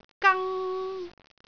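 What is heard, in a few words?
"gang1", Cantonese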